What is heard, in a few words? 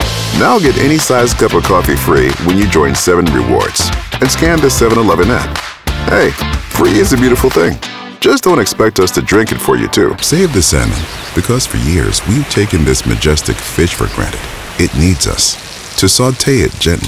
conversational commercial